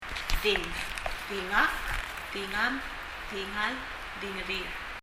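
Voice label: "ding" Palauan, ear; 耳; 発音; 身体の各部位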